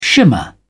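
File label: "shimmer", British English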